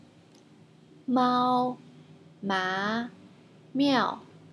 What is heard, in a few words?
free chinese learning m pronounciation